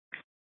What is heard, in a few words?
Mesa PD Dispatch; Fiesta